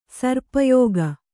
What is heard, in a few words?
"ಸರ್ಪಯೋಗ", Kannada